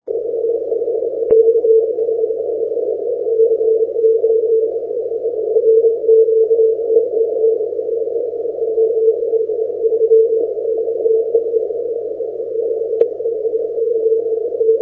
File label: 80m ssb